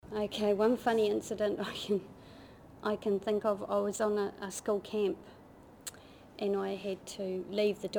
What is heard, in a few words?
NZ Invercargill Women; Australia-Oceania; New Zealander